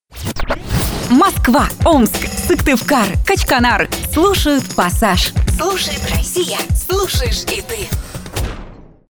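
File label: russian female commercial; voice demos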